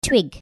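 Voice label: "twig", English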